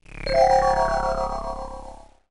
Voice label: low morale

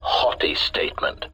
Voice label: haughty statement, sfx

